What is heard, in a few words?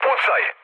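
Serbian attack position mood low, speech; War Thunder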